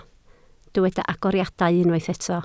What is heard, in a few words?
"agoriadau", Welsh